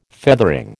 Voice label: "feathering", American

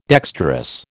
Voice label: "dexterous", American

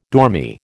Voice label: "dormy", American

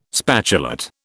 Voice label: "spatulate", British

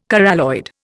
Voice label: "coralloid", Indian

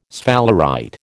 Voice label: "sphalerite", American

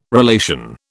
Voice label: "relation", British

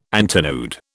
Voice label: "antinode", British

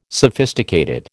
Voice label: "sophisticated", American

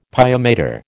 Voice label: "pia mater", American

